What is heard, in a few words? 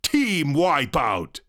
vocal witch doctor dialogue team wipeout male dry